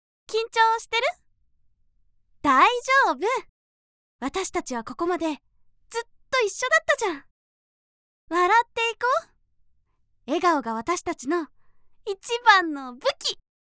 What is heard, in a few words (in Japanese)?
元気少女